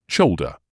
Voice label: "shoulder", English

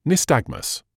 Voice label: "nystagmus", English

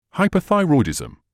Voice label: "hyperthyroidism", English

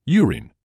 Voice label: "urine", medical English

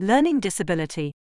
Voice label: "learning disability", English; Medical English UK

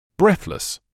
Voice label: "breathless", medical english uk; BRETH-luhss; ˈbreθ.ləs

